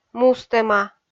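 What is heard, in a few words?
"мустӧма", Komi, female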